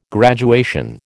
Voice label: "graduation", American